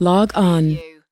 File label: US female, "log on"; lynne publishing